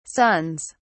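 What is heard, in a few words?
"sons", British English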